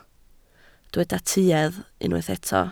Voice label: "tuedd", Welsh

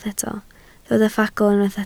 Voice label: "ffagl", Welsh

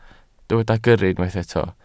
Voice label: "gyrru", Welsh

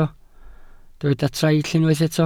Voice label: "traill", Welsh

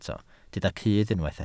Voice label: "cudd", Welsh

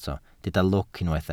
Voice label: "lwc", Welsh

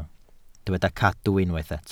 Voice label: "cadw", Welsh

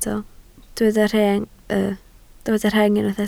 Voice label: "rheng", Welsh

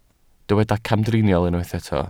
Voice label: "camdriniol", Welsh

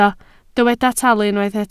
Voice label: "talu", Welsh

